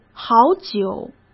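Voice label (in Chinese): "好久", Mandarin Chinese; hsk; chinese-flashcards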